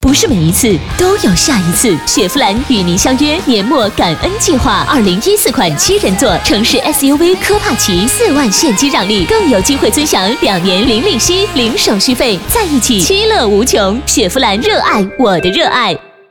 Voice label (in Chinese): 女 电台促销; 雪佛兰